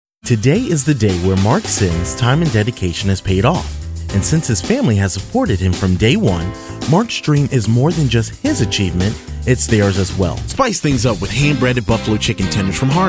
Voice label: MALE